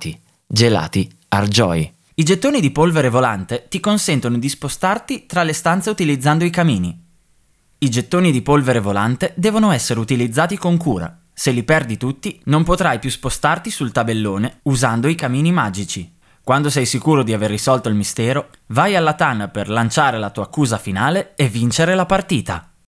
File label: Italian